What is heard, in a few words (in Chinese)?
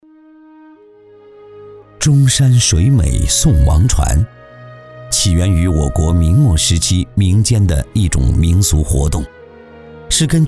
男 记录片 历史沉稳; 钟山水美